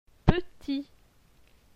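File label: "Petit", French; phonetique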